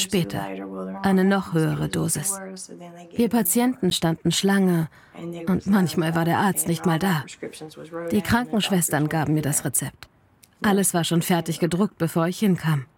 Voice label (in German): Voice Over Doku Opioide; arte